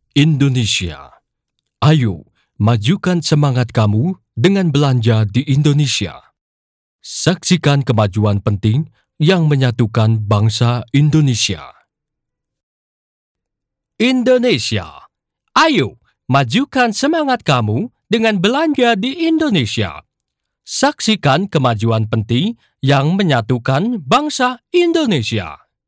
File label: indonesian e-learning